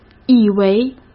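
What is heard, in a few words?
"以为", Mandarin Chinese; chinese-flashcards; hsk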